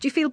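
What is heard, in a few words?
"DZHu feel", Standard Southern British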